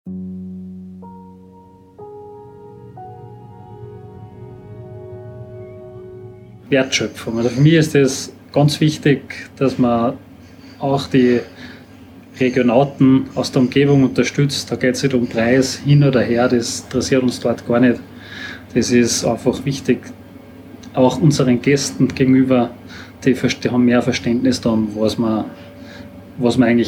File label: podcast